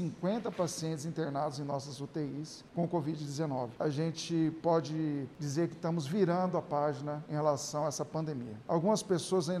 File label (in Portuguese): spot live terceira dose vacina radio camara